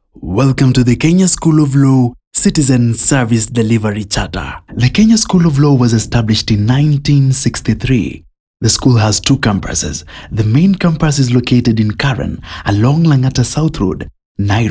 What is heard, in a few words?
English voice over